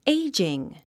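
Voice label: "aging", English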